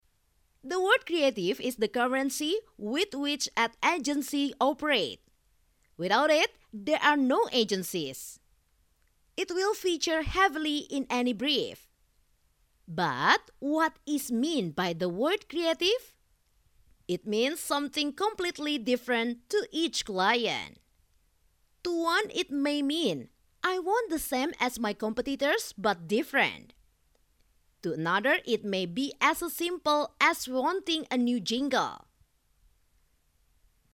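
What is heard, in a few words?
Indonesian female voice over, English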